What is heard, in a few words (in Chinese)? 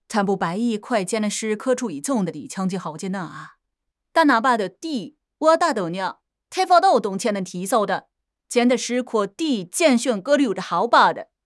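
"征收", Chinese; HSK6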